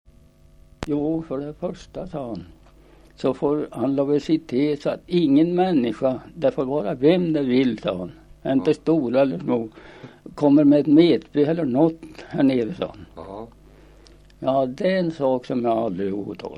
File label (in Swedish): Björsäter, dialekter; Folkminnen; Svenska sagor